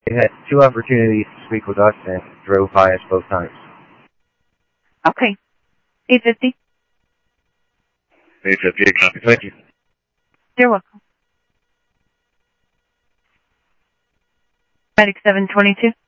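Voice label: Sheriff Lake Patrol, scanner audio trunk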